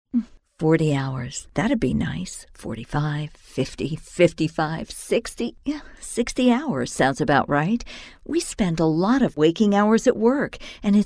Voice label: explainers female